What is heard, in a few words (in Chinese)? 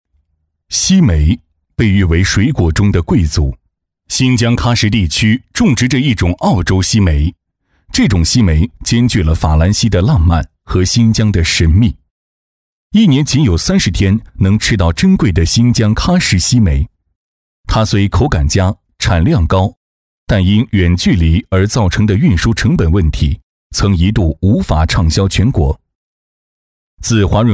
男 专题配音 自然讲述; 激情有力; 焕乡计划